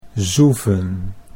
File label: "zoeven", Dutch